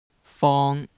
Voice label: square, cantonese

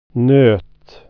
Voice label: "nut", swedish

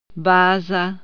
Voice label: "vase", Czech

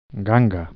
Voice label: bargain, galician

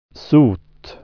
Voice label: "soot", swedish

